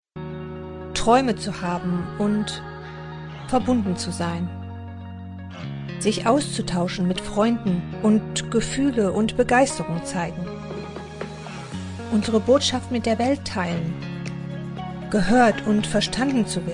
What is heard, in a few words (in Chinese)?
德语女声